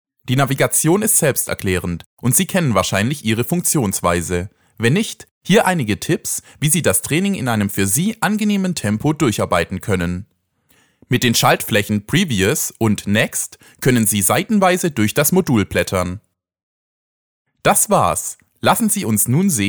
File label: male German